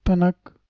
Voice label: "tanak", Balochi